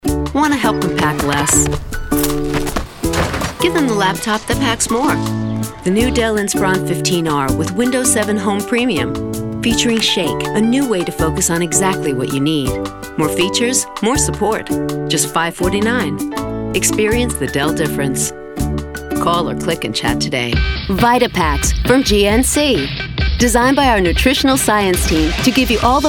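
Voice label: Female Commercial, voiceover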